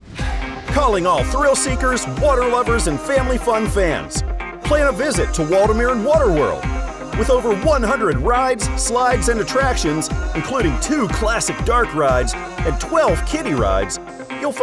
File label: Radio Ad; Thrill Seekers